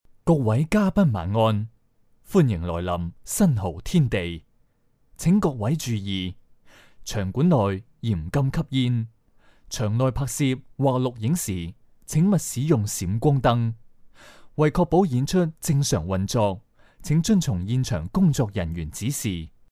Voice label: 粤男 司仪; 新濠天地

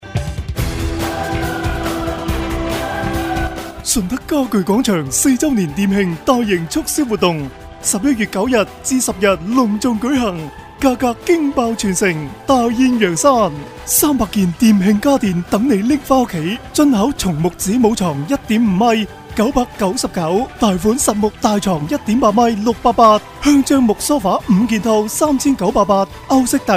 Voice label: 粤男 促销 顺德家具

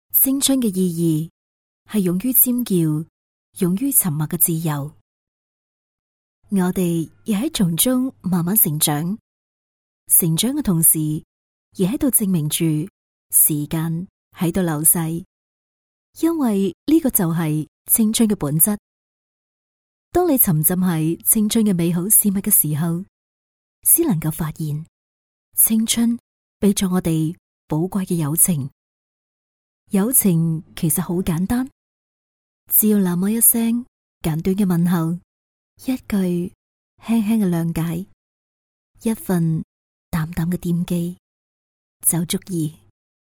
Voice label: 女【旁白】青春, 粤女

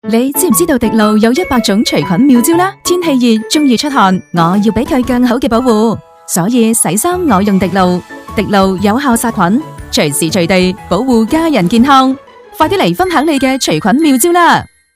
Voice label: 女 广告; 滴露

